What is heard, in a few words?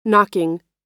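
"knocking", American English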